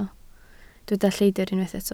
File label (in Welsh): "lleidr", Welsh